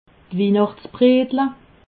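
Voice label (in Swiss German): "winachts bredele", Alsatian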